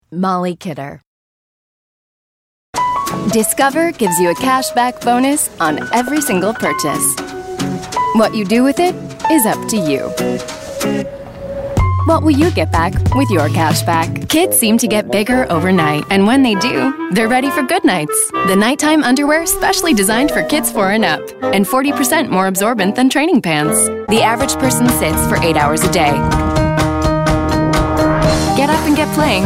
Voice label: commercial; Innovative LA